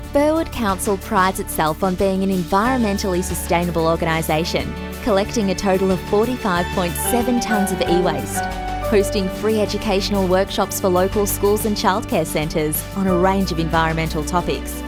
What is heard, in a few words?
sec corporate